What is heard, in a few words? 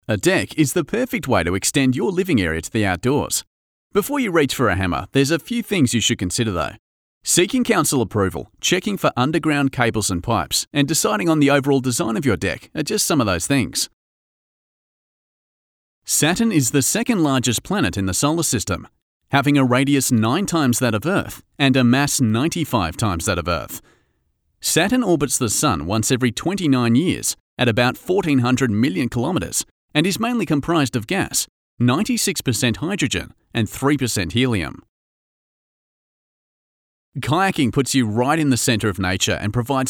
Narration